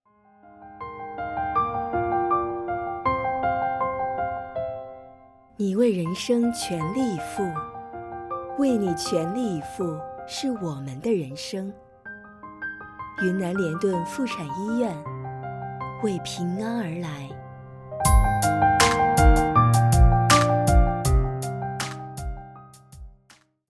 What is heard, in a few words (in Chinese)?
妇产医院广告